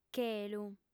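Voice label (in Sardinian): "chelu", female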